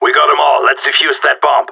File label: no enemies left bomb, radio; GSG9